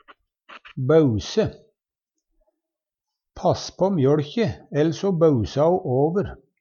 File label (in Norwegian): bause over, Numedalsmål